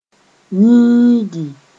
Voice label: "yĩigi", Mooré